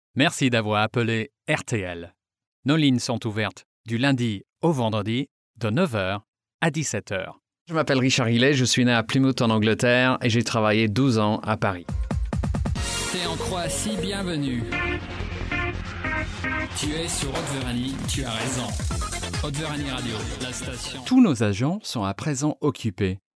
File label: French